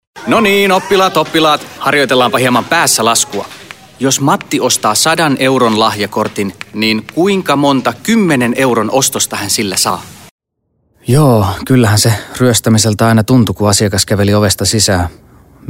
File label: locutor finlandes finnish voiceover